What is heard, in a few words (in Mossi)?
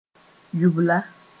"yubla", moore